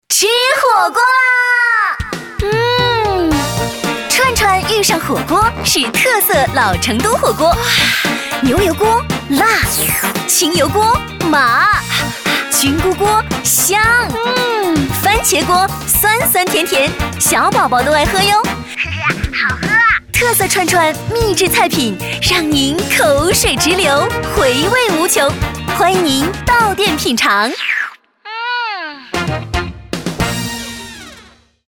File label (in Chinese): 广告 品牌形象 火锅店 活力